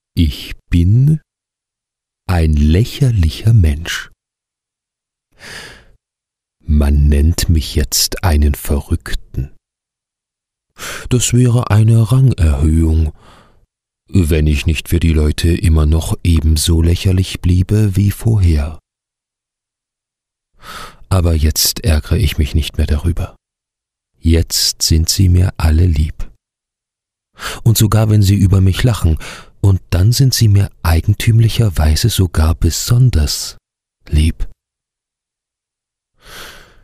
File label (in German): hoerbuch